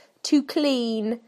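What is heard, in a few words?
"to clean", British English; PictureDictionary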